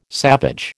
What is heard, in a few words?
"savage", American